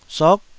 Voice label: "sok9", Taiwanese syllable

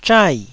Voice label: "zhai4", Taiwanese

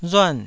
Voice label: "ruan4", Taiwanese

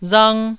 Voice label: "rang1", taiwanese

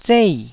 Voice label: "zei4", Taiwanese

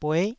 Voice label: "bueh9", taiwanese Syllables

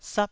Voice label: "sap1", taiwanese syllable

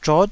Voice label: "zhot9", Taiwanese syllable

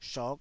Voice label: "shot5", Taiwanese

